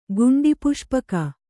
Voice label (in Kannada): "ಗುಂಡಿಪುಷ್ಪಕ", Kannada